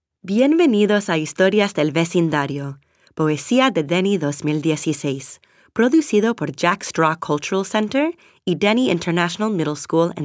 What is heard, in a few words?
Opening Narration